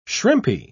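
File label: "shrimpy", British English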